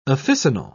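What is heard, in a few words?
"officinal", British English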